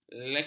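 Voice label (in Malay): "lekap", Malay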